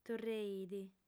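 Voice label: "torreit", lingua sarda, female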